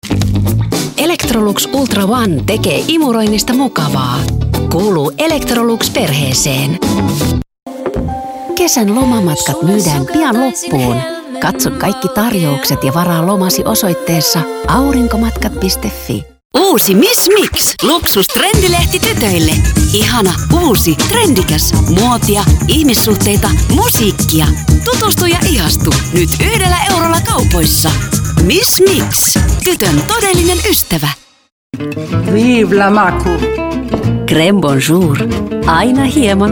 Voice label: commercial